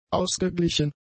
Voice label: "ausgeglichen", German; adjectives